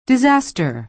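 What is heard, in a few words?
"disaster", American English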